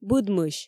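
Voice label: "быдмысь", Komi, female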